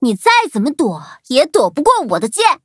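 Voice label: loading, Traditional Chinese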